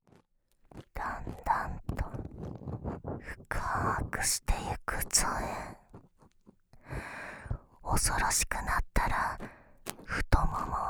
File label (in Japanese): 癒やしの耳かきと、寝物語じゃ; とびきりの溺愛力…千年魔王のナユタ様に籠絡されて、褒め褒めあまとろセックスで守られ続ける物語♪